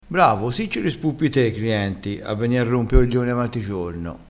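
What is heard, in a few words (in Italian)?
"criente", dialettale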